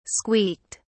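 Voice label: "squeaked", British English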